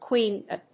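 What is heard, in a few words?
"quena", English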